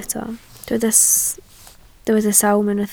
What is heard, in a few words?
"sawm", Welsh